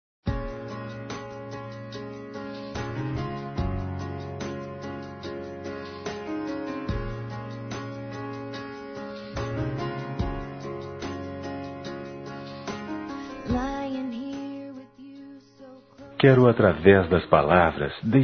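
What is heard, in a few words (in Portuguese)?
Masculino, Telemensagens Aniversários; Aniversário Romântico; Telemensagens 2017; Aniv Familiar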